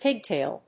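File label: "pigtail", English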